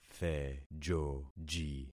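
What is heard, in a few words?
"thejoji", concatenated syllables; Affixing experiment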